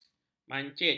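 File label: "mancit", Malay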